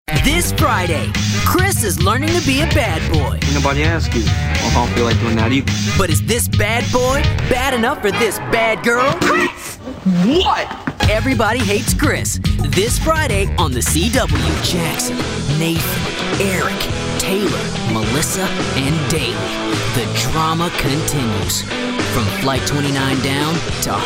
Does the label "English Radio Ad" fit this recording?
No